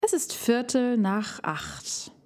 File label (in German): DaF Aussprache Ach laut Übung